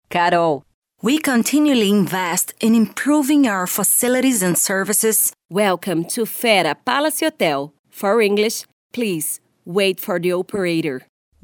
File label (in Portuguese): Brasileiro ingles